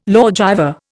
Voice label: "lawgiver", Indian